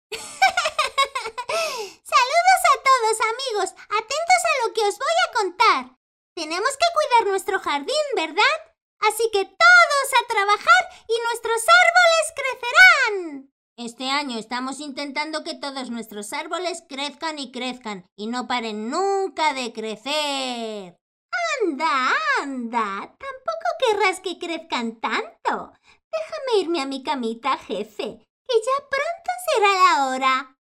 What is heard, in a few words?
Spanish animation